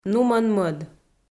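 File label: "нумӧн-мӧд", Komi, female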